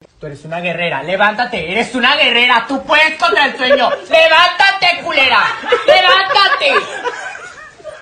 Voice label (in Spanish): eres una guerrera despertador gracioso